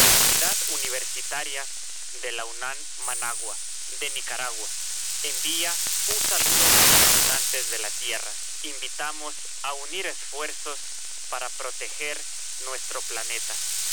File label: male nicaragua